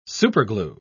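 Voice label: "superglue", English, uk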